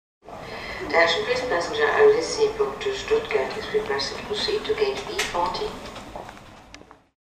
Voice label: attention please passenger, Flughafen Ansagen